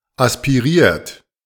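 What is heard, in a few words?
"aspiriert", German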